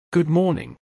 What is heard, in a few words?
"good morning", UK